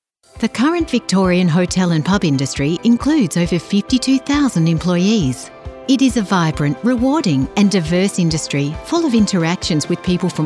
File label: english australian corporate videos